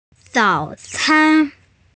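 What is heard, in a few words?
"breakfast", female; zao3can1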